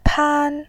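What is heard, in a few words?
"pan", Mandarin, tone 1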